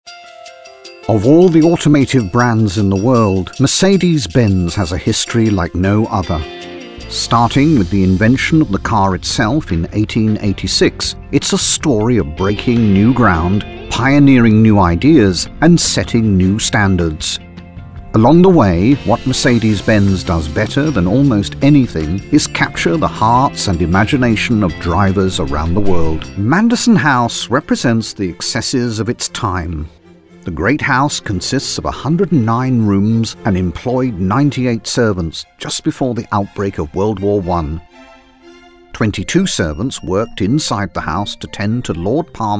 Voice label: narratives